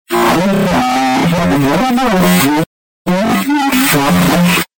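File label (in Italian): "ahi ma mi", Italian; Ahi, ma richiede, e dai d'occhio, amicizia qua!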